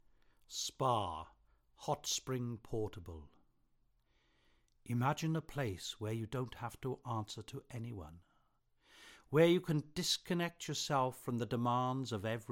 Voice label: spa advert commercial

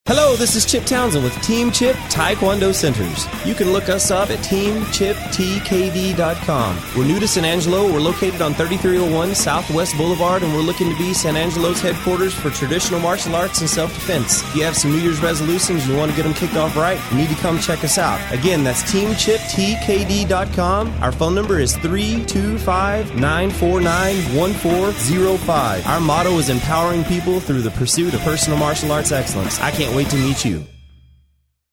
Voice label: tae kwon do commercial; Team Chip; San Angelo; KIXY

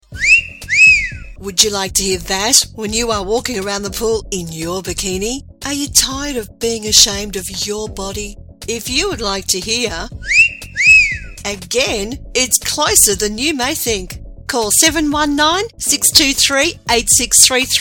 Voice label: whistle ad with aussie girl

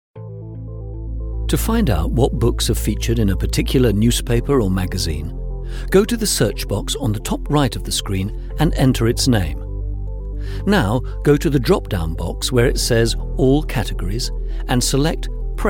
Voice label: corporate

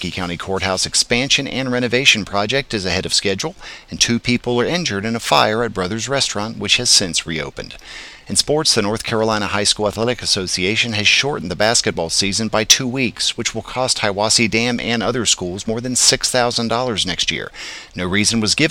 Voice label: local news update; herokee scout

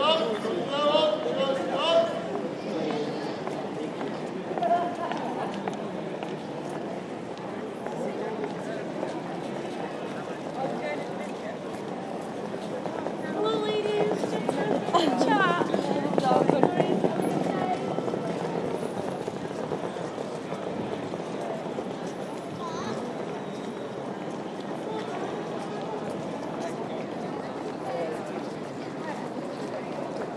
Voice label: hot roast chestnuts seller on leeds briggate

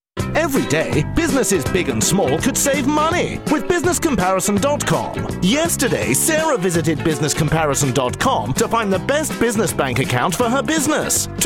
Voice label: radio advertisement; radio airtime media

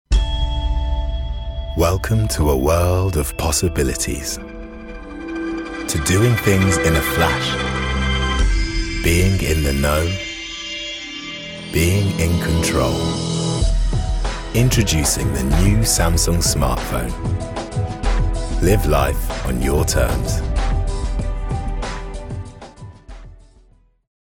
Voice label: ad; samsung

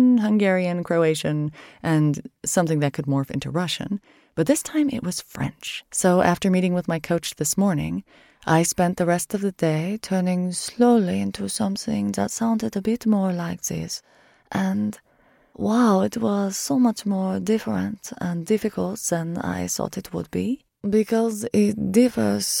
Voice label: accent fun

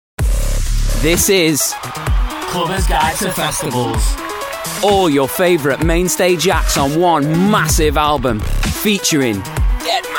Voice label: advert; clubbers guide

